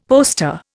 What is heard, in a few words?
"poster", Indian; Dictionary